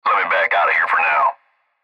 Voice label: Cb Trucker Radio